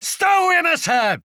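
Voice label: Czech positive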